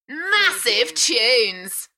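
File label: British female Massive tunes Enthusiastic; beanstalk audio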